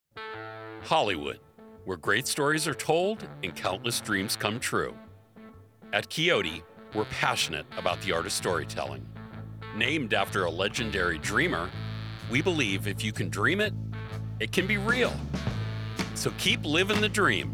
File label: Narration